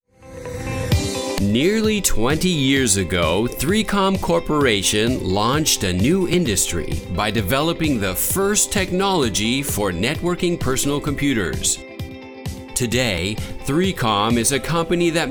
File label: Video VO with BGM